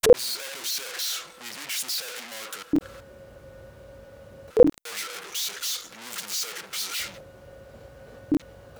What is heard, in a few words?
radio; usmc